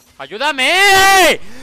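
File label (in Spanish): "AYUDAMEEEEEEEEEEEEEE", Spanish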